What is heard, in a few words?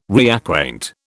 "reacquaint", British